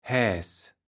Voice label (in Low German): "Häs", kaevels platt